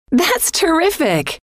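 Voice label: thats Terrific, female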